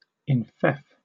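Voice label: "enfeoff", British English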